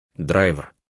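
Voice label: "driver", Russian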